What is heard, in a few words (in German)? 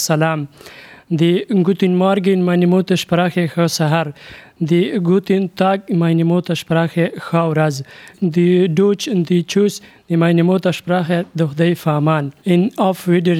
Begrüßung pashto